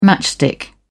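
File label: "matchstick", British English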